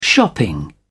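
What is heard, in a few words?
"shopping", British English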